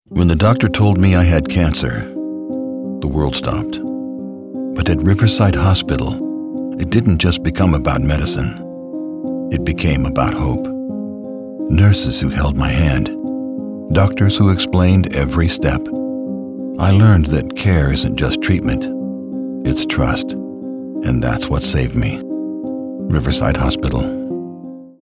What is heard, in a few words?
Radio Commercials, Hospital Ad, Older Male Voice Over; Pandora Ad - Hospital Ad; Riverside Hospital